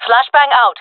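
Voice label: throwing flashbang, jungle female; vo; Counter Strike Model Pack; CS:GO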